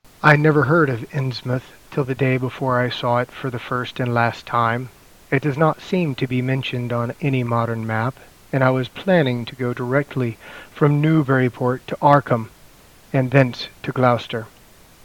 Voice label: Voice 25dB